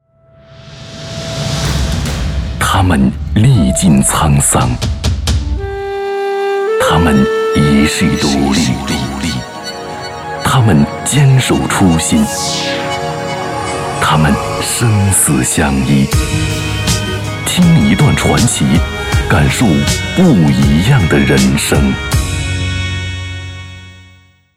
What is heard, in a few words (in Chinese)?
男 广告 片头片花; 听一段传奇